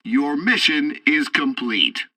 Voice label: tutorial bomber turrets, english; War Thunder